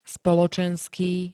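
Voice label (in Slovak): "spoločenský", Slovak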